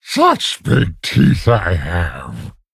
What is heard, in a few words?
Female Big Bad Wolf Play